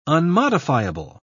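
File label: "unmodifiable", British English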